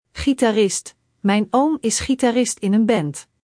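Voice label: "gitarist", Dutch; Spelling Gr6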